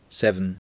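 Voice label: "seven", English word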